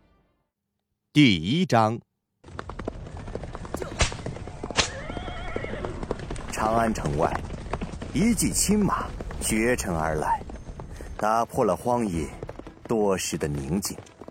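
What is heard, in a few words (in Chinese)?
广播剧旁白; 长歌行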